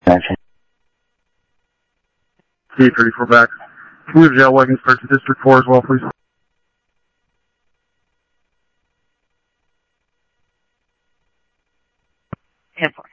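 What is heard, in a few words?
Lakes Sheriff Lake Patrol, scanner audio trunk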